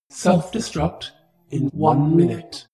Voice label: space shuttle female status report